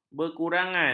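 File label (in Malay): "berkurangan", Malay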